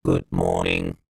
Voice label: science fiction robot says good morning